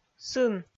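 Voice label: "сын", Komi, female; audio dict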